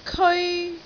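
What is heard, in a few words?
"keoi1", Cantonese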